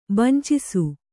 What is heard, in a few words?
"ಬಂಚಿಸು", Kannada; bancisu; (Verb); to deceive; to cheat; to defraud.; to keep away from; to avoid.